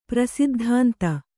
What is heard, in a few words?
"ಪ್ರಸಿದ್ಧಾಂತ", Kannada